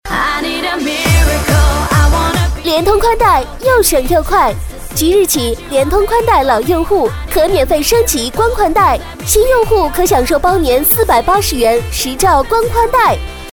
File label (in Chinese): 促销 联通 女 激情